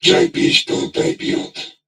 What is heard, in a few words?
Patrol, Crewmen, en; Warframe; Corpus Crewmen; Crewman E; Dialog Barks Battle